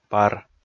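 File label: "пар", Komi, male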